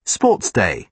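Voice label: "sports day", British English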